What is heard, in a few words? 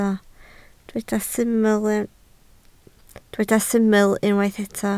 "syml", Welsh